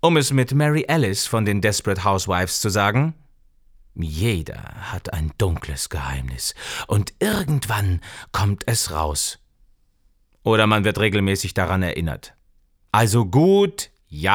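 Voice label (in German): hoerbuch, deutsch männer; sprecher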